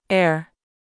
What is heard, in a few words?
"air", pronunciation vowel